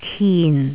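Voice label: "kin4", Jyutping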